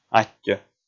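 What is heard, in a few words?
"аттьӧ", Komi, male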